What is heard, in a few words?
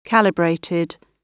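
"calibrated", British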